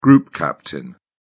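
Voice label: "group captain", British English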